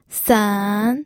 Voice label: "sen3", pinyin